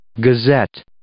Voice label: "gazette", English